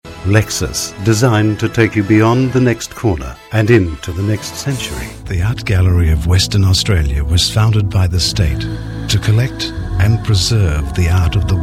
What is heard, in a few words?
australian accent